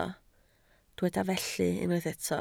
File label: "felly", Welsh